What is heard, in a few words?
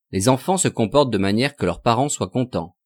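"les enfants se comportent de maniere que leurs", French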